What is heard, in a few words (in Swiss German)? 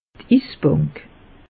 "isbank", alsace; meteo